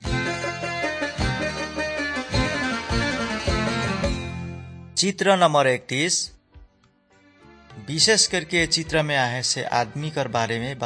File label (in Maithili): good news maithili, nt drama; maithili kisan; wycliffe bible translators inc